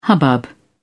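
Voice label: "hubbub", English, uk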